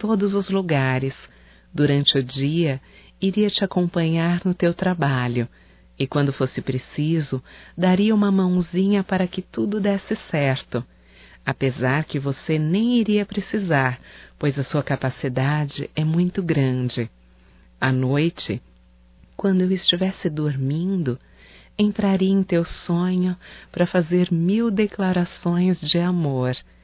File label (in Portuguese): QUERIA SER UM ANJO (F); Românticas